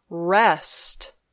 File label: "rest", English